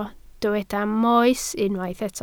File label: "moes", Welsh